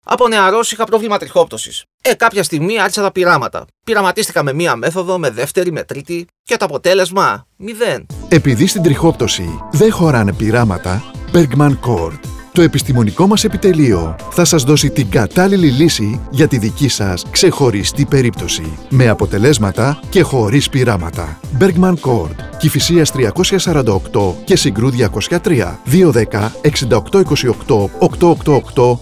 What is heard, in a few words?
radio spots; trixoptwsi metamosxefsi malliwn therapies; athens dieuthinseis